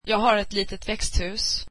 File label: swedish I have a small green house